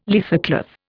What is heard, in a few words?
"leathercloth", Indian